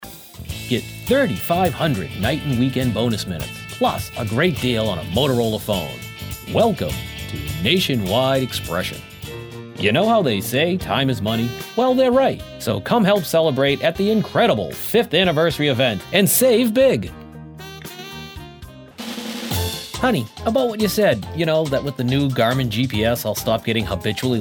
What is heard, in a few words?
commercials